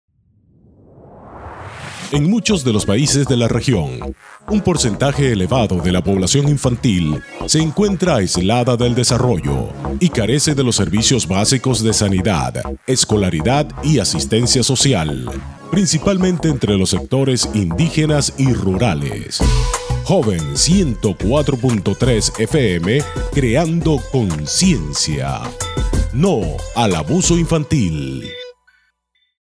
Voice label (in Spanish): INSTITUCIONAL; ABUSO INFANTIL